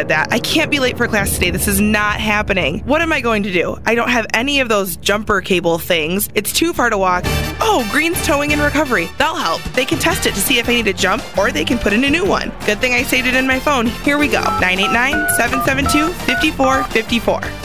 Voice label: Battery Commercial